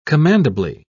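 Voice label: "commendably", English, British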